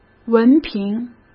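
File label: "文凭", Mandarin Chinese; chinese flashcards; hsk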